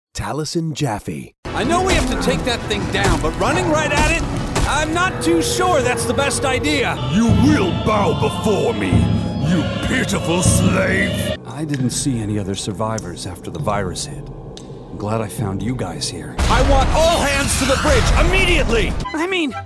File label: animation, voices men